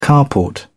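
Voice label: "carport", English, British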